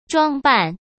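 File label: "装扮", Mandarin Chinese; chinese-flashcards; hsk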